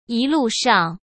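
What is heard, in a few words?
"一路上", Mandarin Chinese; hsk; chinese-flashcards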